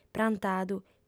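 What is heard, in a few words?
"prantadu", female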